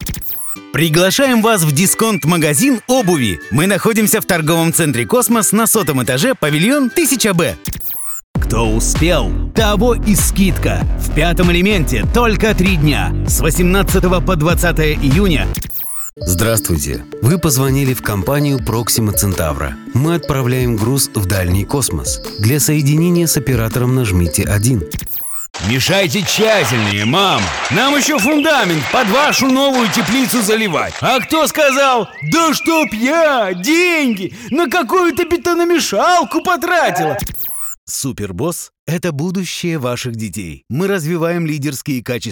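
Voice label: male voice, Russian; muzhskie golosa, diktory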